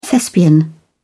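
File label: "thespian", British English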